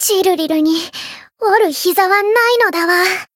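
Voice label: win barely, Japanese; thillelille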